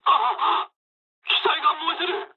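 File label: on fire mood low, speech japanese; War Thunder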